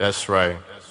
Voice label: "that's right", male; Voice